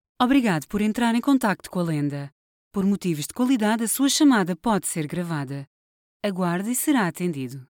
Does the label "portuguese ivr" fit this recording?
Yes